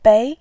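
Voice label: "bei", Mandarin Chinese, tone 2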